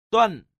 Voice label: "dun", tiếng trung; che bien

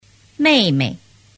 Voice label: "meimei", tiếng trung; thanh nhe

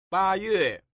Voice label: "ba yue", tiếng trung; cach noi thang

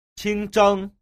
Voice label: "qing zheng", tiếng trung; che bien

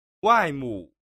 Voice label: "wai mu", tiếng trung

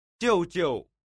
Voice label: "jiu jiu", tiếng trung

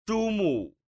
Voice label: "shu mu", tiếng trung

